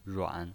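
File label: "ruan", Mandarin Chinese pinyin voice, third tone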